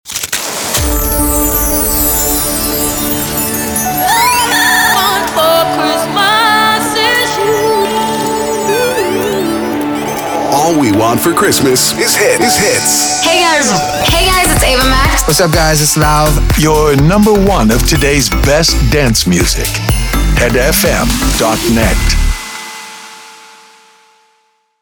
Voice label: Sweeper Xmas Long